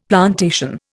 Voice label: "plantation", Indian; Dictionary

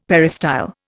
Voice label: "peristyle", Indian; Dictionary

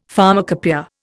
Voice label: "pharmacopoeia", Indian; Dictionary

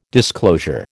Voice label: "disclosure", American

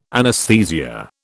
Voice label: "anaesthesia", British

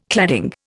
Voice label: "cladding", Indian

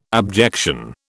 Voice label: "abjection", British

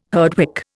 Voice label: "Herdwick", Indian

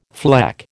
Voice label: "fleck", American

